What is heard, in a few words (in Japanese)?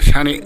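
"shane", 南山形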